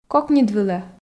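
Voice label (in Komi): кокньыд вылӧ, Komi, female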